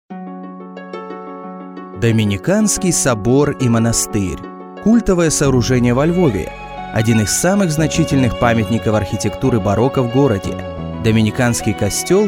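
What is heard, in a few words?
Narration edu, Russian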